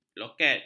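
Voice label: "loket", Malay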